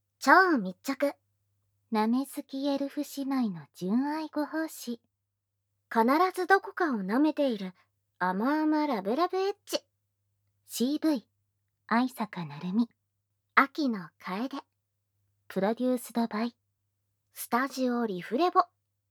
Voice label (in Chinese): 标题（无SE）; 喜欢舔舐的精灵姐妹; 【超亲密】喜欢舔舐的精灵姐妹的纯爱侍奉～必定会舔弄某处的甜蜜亲热做爱～